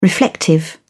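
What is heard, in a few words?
"reflective", English, uk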